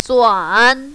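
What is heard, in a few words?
"zuan" third tone, mandarin, female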